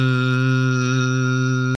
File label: short l; clipped; landr